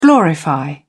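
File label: "glorify", English, British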